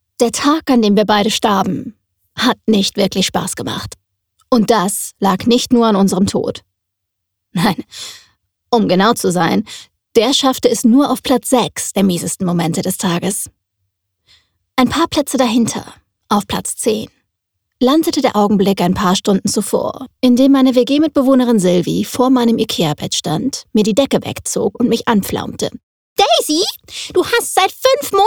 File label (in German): DE; Mieses Karma